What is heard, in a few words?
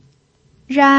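"ran", pinyin, tone 1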